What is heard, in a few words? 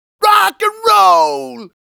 'Rock 'n' Roll!' text message tone; Payday - The Heist; Wolf